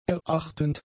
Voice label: "erachtend", German; adjectives